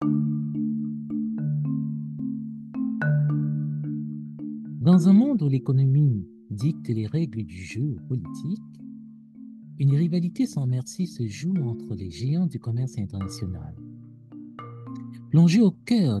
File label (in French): chronique commerciale americaine bande annonce